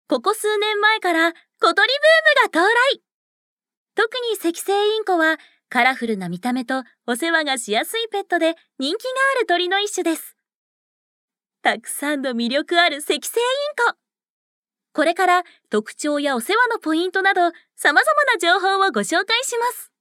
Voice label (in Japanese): 華やかナレーション